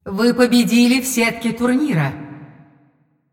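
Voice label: announcer female; win bracket generic; Clash; tournaments; ru ru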